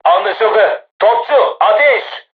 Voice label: voice message art shoot, crew tanks turkish